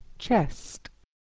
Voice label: "chest", English